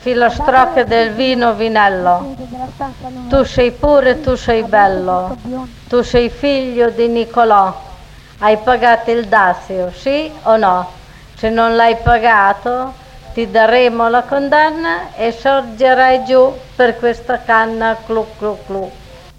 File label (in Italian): filastrocca; vino vinello